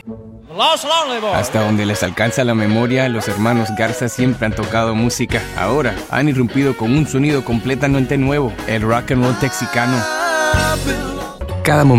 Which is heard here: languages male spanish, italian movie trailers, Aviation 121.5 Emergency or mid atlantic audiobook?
languages male spanish